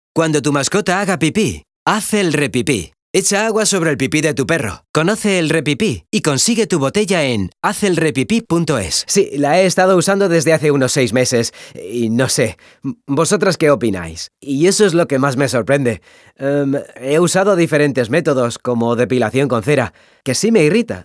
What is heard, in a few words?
SPANISH VO